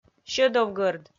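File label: "сьӧдовгӧрд", Komi, female; kpv audio dict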